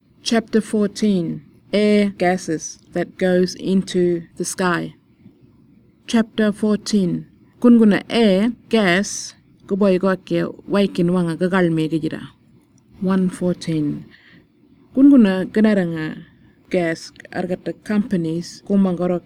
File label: burarra; fracking inquiry